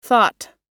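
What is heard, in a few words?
"thought", American English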